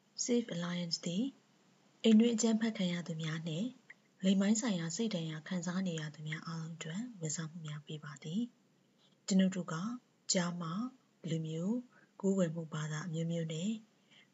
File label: burmese